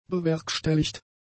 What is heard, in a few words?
"bewerkstelligt", German; adjectives